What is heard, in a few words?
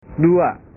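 "dua", Indonesian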